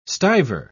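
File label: "stiver", English, British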